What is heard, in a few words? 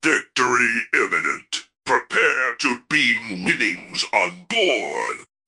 happy, speech; fertig classic games